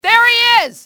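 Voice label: "there he is", english, female; XBOX; enemy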